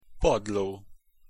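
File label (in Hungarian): "padlo" Hungarian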